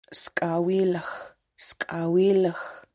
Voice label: water snake, syilx okanagan; syilx okanagan partnerships